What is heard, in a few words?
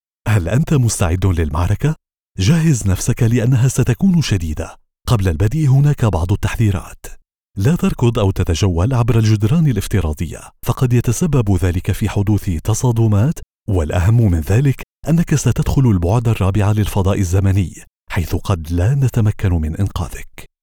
arabic animation